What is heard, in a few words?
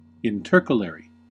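"intercalary", US English; pronunciations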